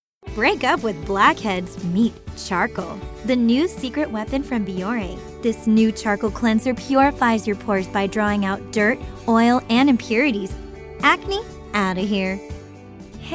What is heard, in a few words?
Commercials